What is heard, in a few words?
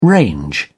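"range", British English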